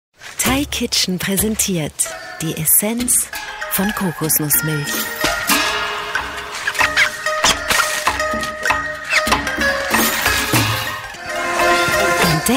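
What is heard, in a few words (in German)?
Werbung Sanft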